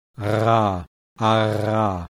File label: V uvular trill